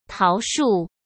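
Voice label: "桃树", Mandarin Chinese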